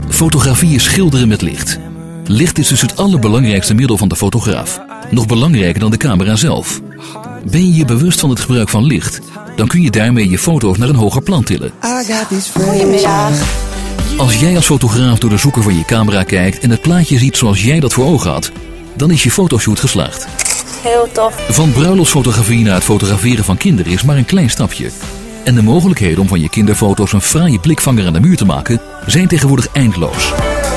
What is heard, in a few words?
dutch promos